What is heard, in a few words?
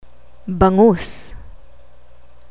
"bangus", Tagalog